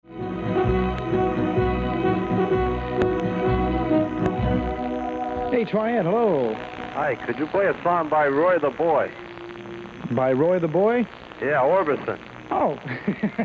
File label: air check; whyn